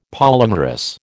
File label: "polymerous", American